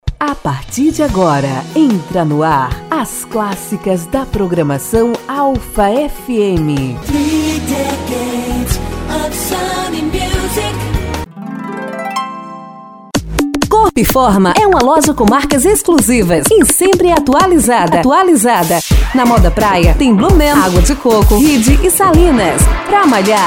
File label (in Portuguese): locutora, vozes feminina